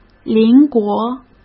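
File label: "邻国", Mandarin Chinese; hsk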